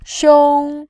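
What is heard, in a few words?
"xiong", Mandarin Chinese, tone 1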